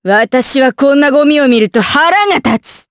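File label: vox dia Japanese; Nicky; kingsraid; jp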